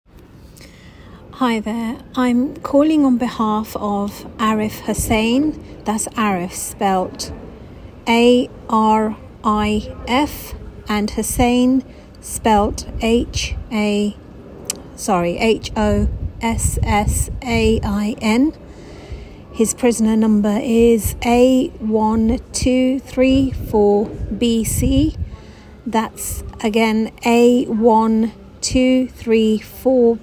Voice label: example of voicemail